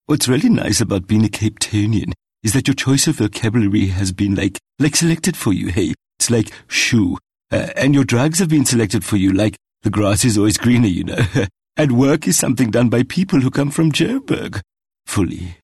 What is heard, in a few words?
Character Capetonian